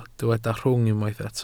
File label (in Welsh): "rhwng", Welsh